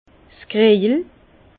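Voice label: "krejel", Alsatian, Bas Rhin, carafe; vin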